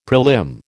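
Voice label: "prelim", American English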